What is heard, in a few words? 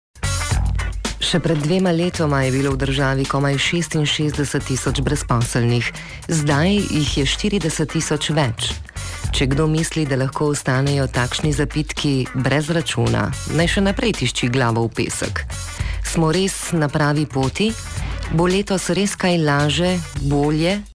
locutora eslovena slovenian female voiceover